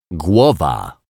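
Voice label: "glowa", Polish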